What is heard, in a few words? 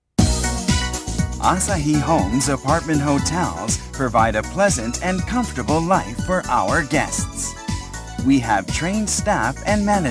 usa man